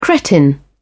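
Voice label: "cretin", British English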